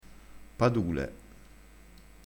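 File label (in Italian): "padule", dialettale crespinese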